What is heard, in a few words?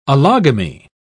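"allogamy", British English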